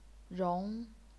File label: "rong2", chinese pinyin